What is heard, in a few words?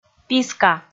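"писка", Komi, female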